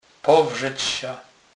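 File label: "powrzec", Polish, Kurpie dialect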